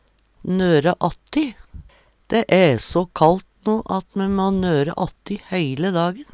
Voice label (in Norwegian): nore atti, Numedalsmål; Uttrykk